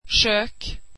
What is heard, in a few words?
swedish kitchen